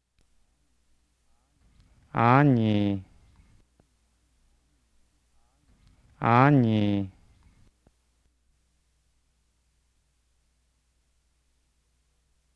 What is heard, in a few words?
Lahu Na Tones